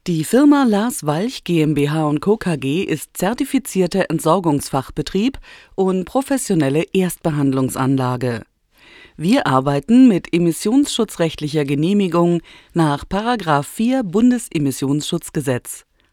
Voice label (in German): Industriefilm